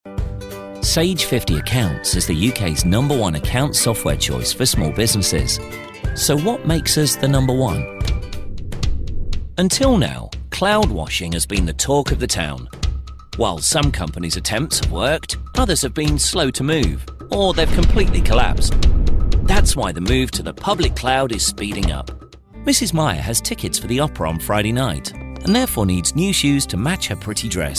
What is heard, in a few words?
Friendly Male, elearning voices